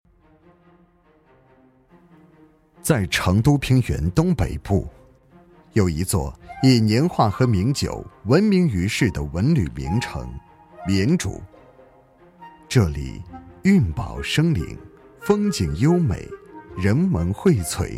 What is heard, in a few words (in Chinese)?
男 纪录片; 舌尖年味